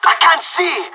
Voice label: blinded, pirate; Counter Strike Model Pack; CS:GO